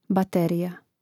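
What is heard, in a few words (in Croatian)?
"baterija", Hrvatski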